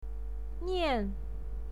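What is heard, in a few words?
"nian" fourth tone, pinyin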